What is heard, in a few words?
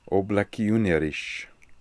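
"Oblekioonerish", pronunciations; Fourth Way; Beelzebub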